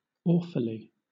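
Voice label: "awfully", British English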